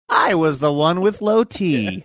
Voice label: saying i was the one with low t funny